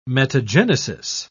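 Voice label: "metagenesis", English, British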